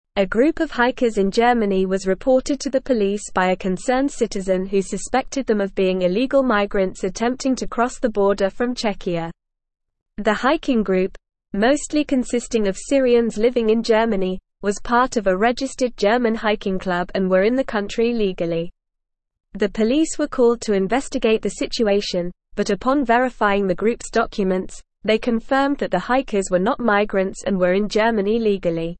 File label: English Newsroom Advanced NORMAL Reading; Hiking Group Reported as Illegal Migrants in Germany